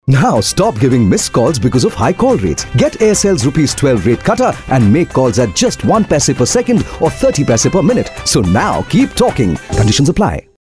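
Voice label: English male voice over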